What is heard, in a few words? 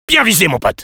good job, fr; Sniper